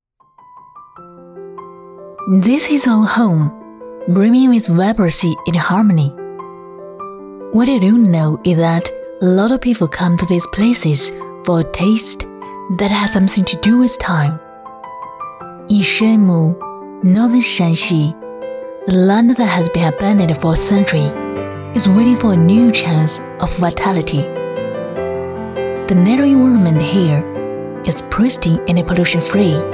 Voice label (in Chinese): 女 英文解说